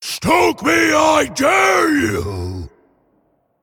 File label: Male Elemental Play